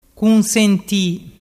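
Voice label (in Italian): "cunsenti", Vernazzese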